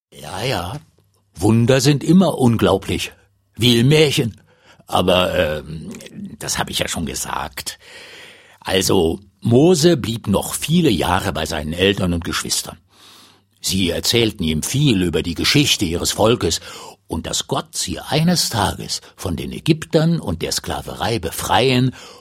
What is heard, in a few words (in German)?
erzaehler